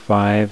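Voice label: "five", English; APL; BMUG PD-ROM 1995 Fall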